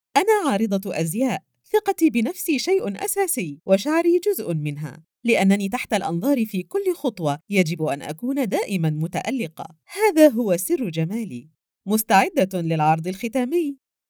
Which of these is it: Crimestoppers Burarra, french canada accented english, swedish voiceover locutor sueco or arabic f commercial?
arabic f commercial